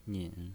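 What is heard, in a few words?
"nin" third tone, pinyin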